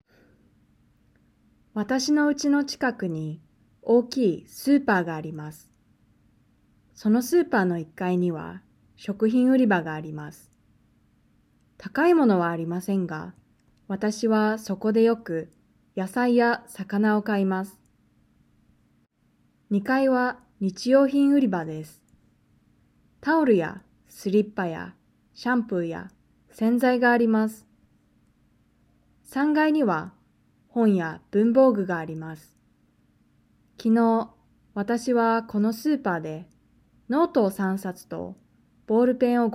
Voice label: Reading natural; Nakama; OJAD